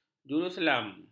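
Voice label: "juruselam", Malay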